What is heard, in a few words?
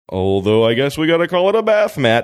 saying although i guess we gotta call it a bath mat funny